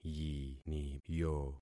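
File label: "yiniyo", concatenated syllables; Affixing experiment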